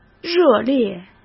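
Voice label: "热烈", Mandarin Chinese; chinese flashcards; hsk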